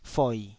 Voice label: "foi3", Taiwanese